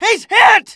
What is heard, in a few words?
panic; mohaa; dialogue